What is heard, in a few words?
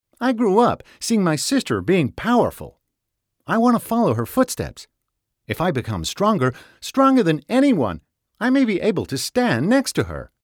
animation game character, voiceover